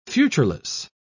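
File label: "futureless", British English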